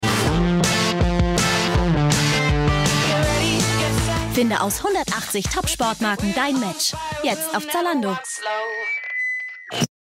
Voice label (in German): Werbung; Zalando